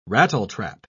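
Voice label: "rattletrap", British English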